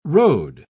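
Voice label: "rode", English word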